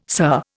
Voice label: "sir", Indian